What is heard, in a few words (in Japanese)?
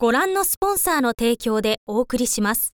info lady